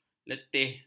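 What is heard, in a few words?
"letih", Malay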